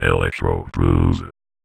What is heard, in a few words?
Vocoder Words; Vengeance Samples